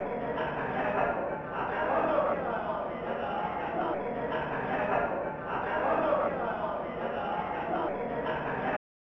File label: Intro Chatter (American); Super Mario All-Stars